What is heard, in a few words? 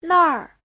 "nàr", Chinese